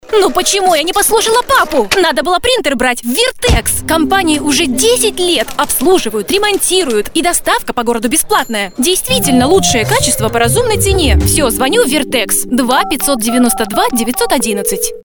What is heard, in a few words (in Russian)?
ИГРОВОЙ ОДНОГОЛОСЫЙ РОЛИК; ВЕРТЕКС